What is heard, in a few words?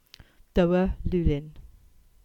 Cornish